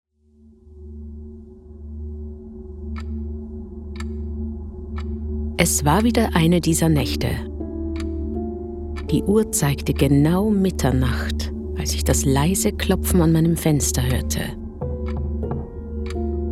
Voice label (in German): Hoerspiel